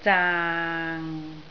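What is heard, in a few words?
"zaang6", Cantonese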